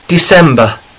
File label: "december", English